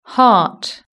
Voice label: "heart", british english

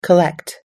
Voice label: "collect", us-english

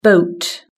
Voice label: "boat", British English

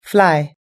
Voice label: "fly", us-english

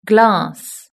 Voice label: "glass", British English

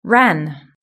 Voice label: "ran", British English

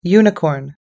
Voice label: "unicorn", us-english

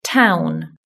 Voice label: "town", British English

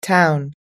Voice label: "town", us-english

